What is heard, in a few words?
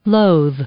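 "loathe", English; verb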